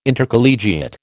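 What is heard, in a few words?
"Intercollegiate", American English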